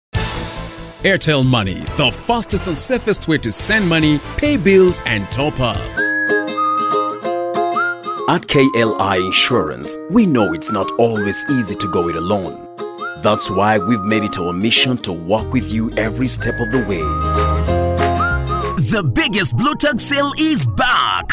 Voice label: english african commercial, Everyman Voice Actor

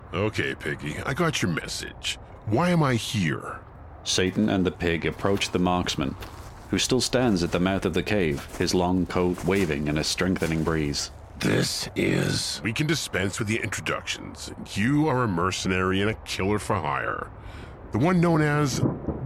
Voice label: English American

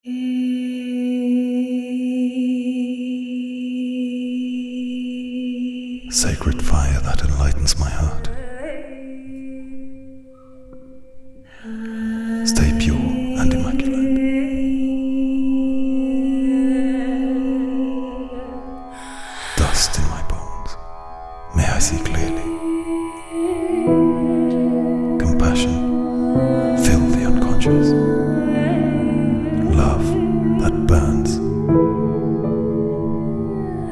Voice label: english commercial

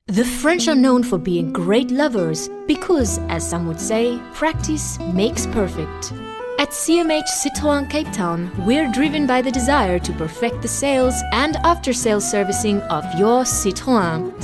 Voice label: english south african

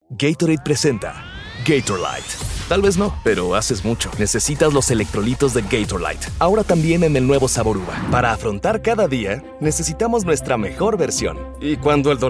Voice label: spanish mexican commercial; Mexico

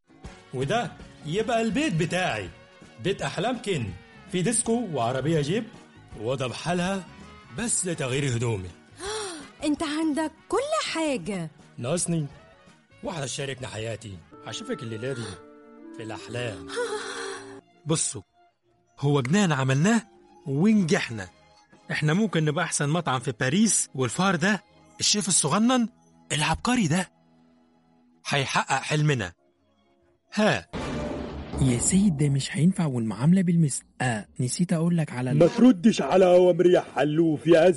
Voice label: arabic animation

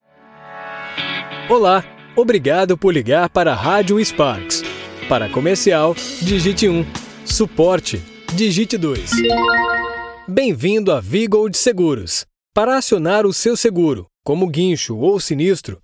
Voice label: Portuguese Brazilian IVR

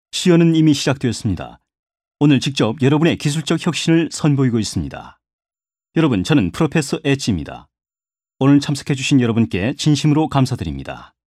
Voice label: korean corporate

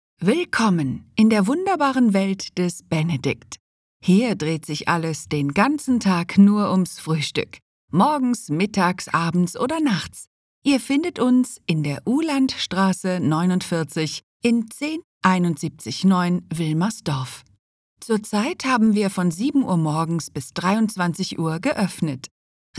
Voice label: IVR

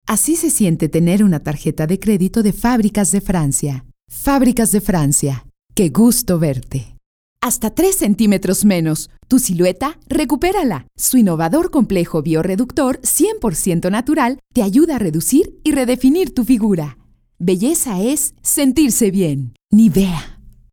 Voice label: spanish mexican commercial

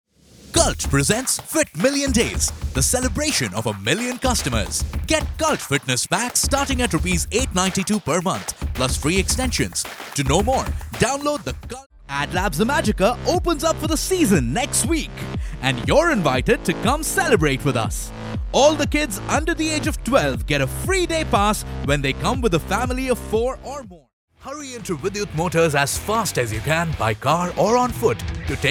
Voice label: english commercial, hindi voice actors; Catchy Commercial & Believable; formally trained and experienced; Explainer Videos; Foreign Language Voice Samples

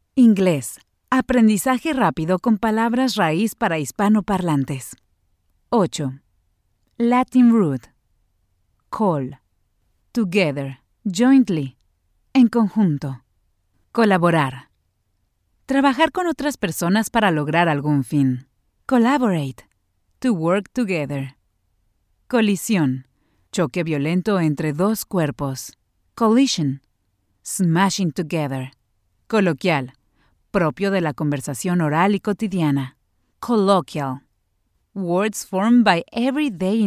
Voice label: spanish mexican e-learning

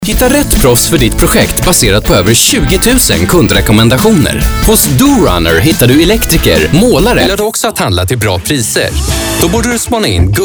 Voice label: swedish commercial

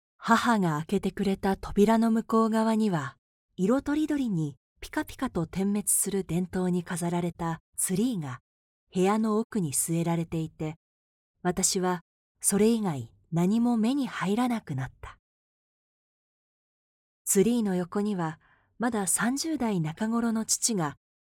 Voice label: japanese narration

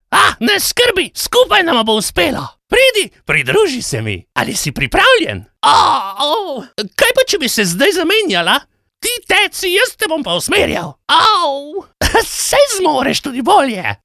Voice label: Slovenian animation

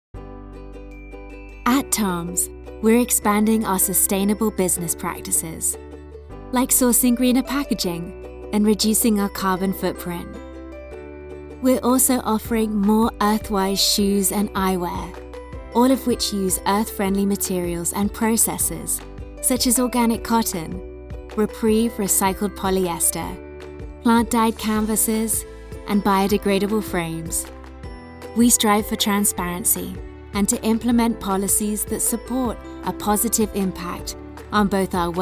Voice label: english british promos

